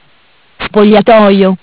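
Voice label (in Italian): "Spogliatoio", Italian; Bentel; BLE320; MESSAGGI VOCALI; Audio BGS220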